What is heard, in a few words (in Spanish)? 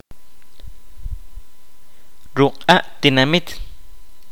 "aldea", Kaqchikel